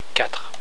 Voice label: "quatre", french

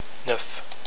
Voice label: "neuf", french

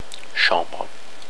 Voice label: "chambre", french; la/une chambre

